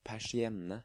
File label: "Persienne", Norwegian